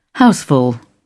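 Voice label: "houseful", British English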